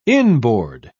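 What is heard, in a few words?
"inboard", British English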